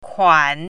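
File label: "kuan3", Chinese pinyin, third tone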